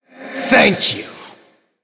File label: Male Human Thanks; Anduin Deathknight